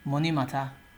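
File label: "money matter", Nigerian Pidgin, male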